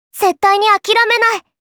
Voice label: "Akirame", Kawaii Voice, Commands